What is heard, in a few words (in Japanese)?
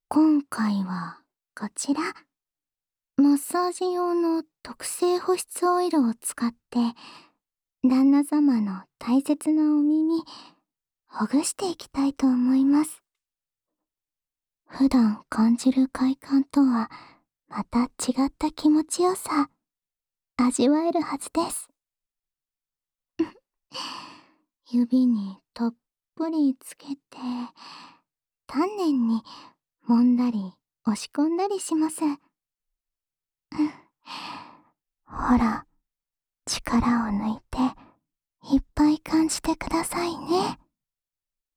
安眠用お耳マッサージ 両方, ASMR